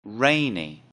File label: "rainy", English; Describing the weather